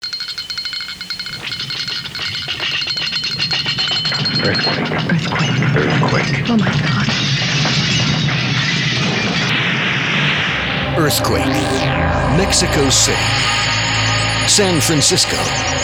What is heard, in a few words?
narration